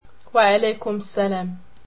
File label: "wasalam", Berber